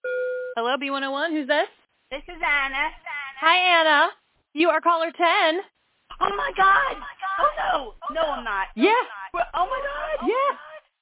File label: WITHOUT AEC